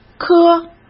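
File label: "棵", Mandarin Chinese; chinese-flashcards; hsk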